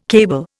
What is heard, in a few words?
"cable", Indian